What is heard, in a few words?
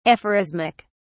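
"aphorismic", British English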